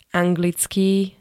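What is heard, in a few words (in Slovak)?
"anglický", Slovak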